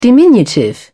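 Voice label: "diminutive", British English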